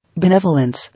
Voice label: "Benevolence", English